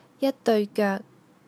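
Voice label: "deui3 geuk3", Cantonese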